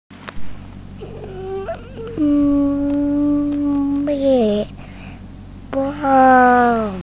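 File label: "mi4 feng1", Mandarin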